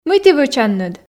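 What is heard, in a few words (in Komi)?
мый ті вӧчанныд, Komi, female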